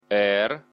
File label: belarusian alphabet r